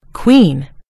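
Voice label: "queen", American English; gcompris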